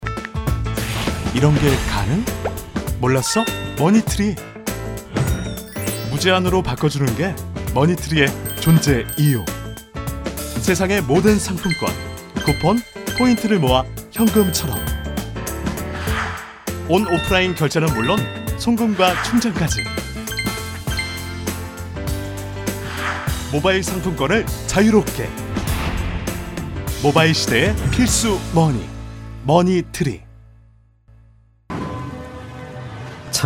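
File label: Korean, male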